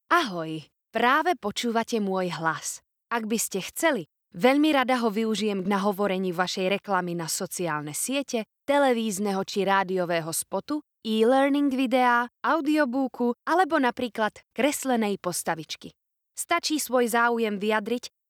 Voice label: slovak narration